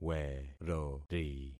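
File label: "werori", concatenated syllables; Affixing experiment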